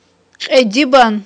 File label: "кьедибан", Aghul